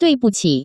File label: "duibuqi", Taiwan Mandarin